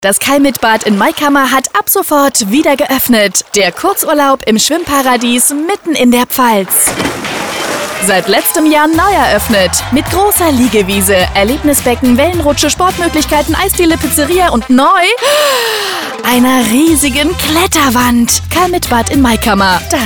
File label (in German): Radiospot; Kalmitbad